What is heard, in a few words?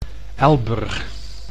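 "Elburg", Dutch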